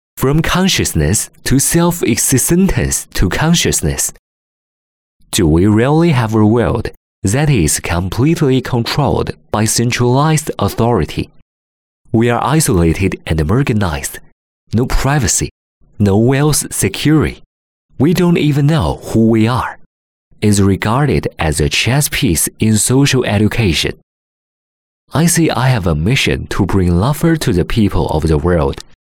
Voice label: 英文

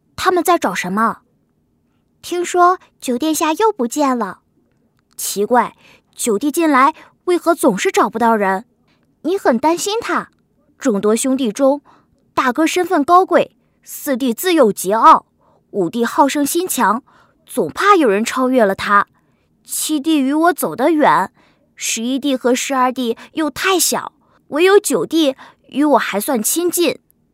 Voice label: 男童音

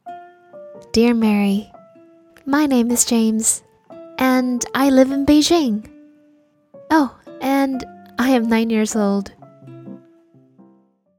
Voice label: 英少女邻家

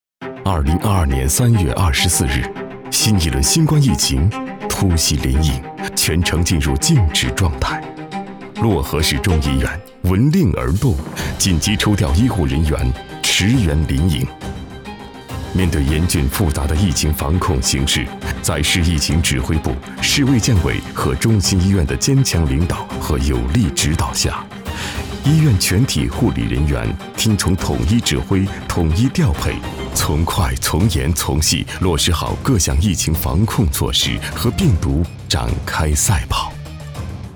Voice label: 疫情防控紧张稳重

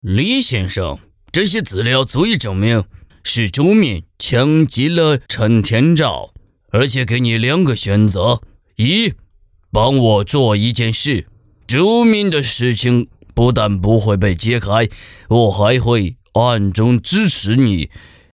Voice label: 老外

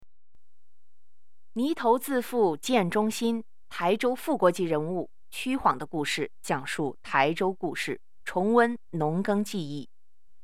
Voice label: 历史类解说

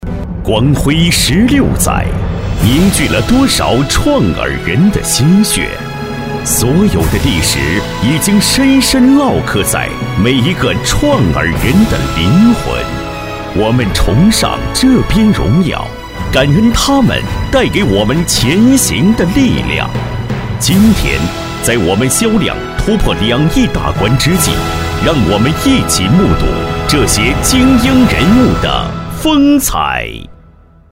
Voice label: 颁奖样音

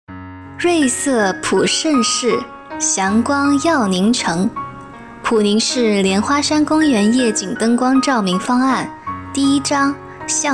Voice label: 宣传城市公园介绍

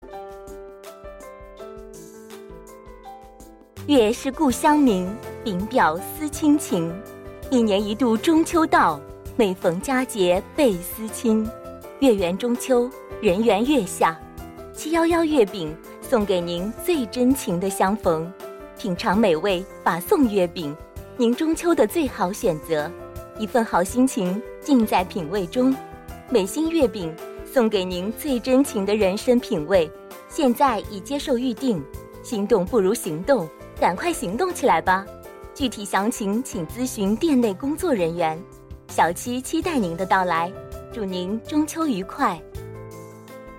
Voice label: 广告促销月饼

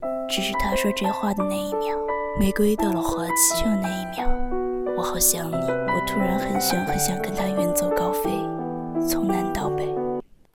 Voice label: 旁白

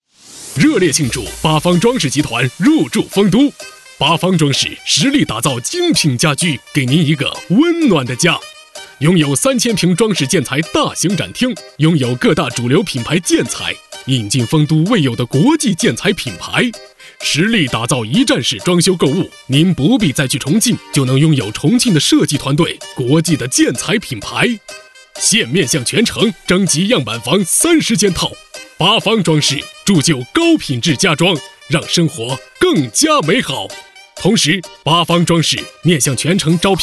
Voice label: 卖场广告; 八方装饰

